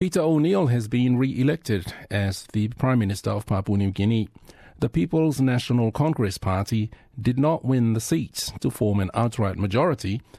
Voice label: samoan; SBS Samoan; Faatauto Peter O'Neill i le tofi palemia o Papua Niu Kini